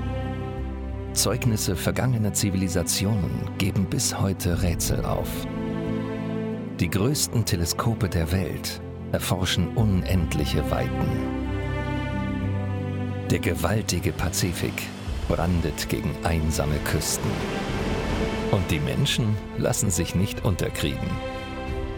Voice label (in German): DE Doku Chile; LOFT VOICES